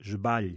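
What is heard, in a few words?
"sbagl", Romansh sursilvan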